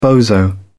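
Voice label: "bozo", English, British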